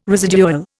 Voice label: "residual", Indian

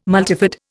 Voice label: "multifid", Indian

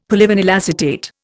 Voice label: "polyvinyl acetate", Indian; Dictionary

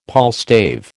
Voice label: "palstave", American

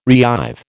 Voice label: "reive", American

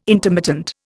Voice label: "intermittent", Indian

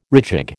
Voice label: "rejig", American

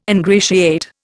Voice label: "ingratiate", Indian

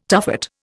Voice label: "tuffet", Indian

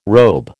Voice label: "robe", American

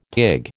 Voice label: "pig", American

